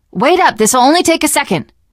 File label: heal other, teen girl; voice; source engine; left 4 dead 2; survivor